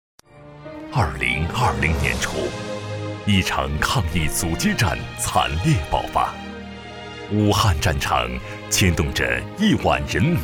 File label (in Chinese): 专题大气